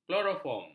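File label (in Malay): "kloroform", Malay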